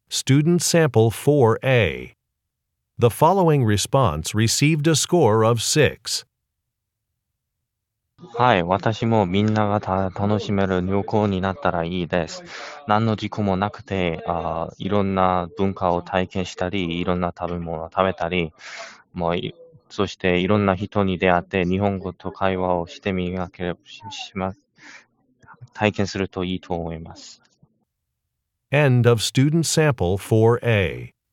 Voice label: japanese conversation